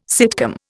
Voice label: "sitcom", Indian